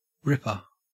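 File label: "ripper", Australian English; pronunciations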